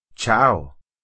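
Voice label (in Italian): "Ciao", Italian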